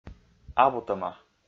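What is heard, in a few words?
"абутӧма", Komi, male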